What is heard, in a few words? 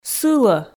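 "сылӧ", Komi, female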